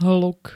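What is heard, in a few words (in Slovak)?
"hluk", Slovak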